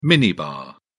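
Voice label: "minibar", British English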